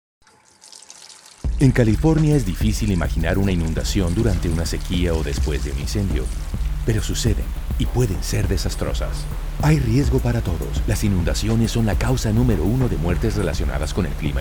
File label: Flood Radio PSA Spanish; Flood Risk Management Program; Education and Outreach